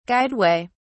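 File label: "guideway", British English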